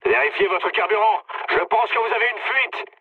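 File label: French tank damaged mood low; War Thunder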